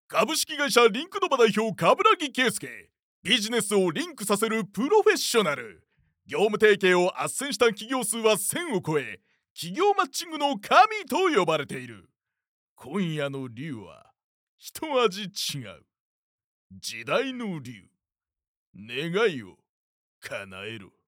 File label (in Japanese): male, ナレーション, 大げさな、煽り口調の; narrator men